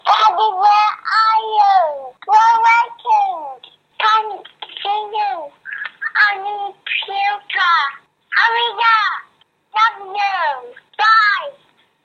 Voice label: tetchy phone message